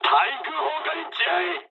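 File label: damaged aa mood med, speech japanese; War Thunder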